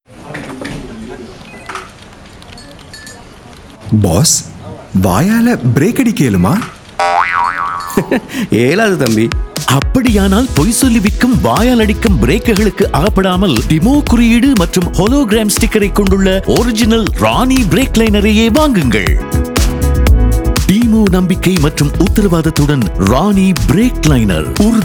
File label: TAMIL SPOT; DIMO RANE BOSS